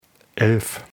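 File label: "elf", German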